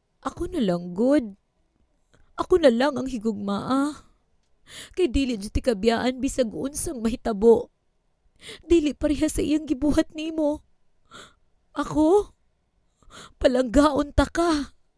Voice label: Cebuano, acting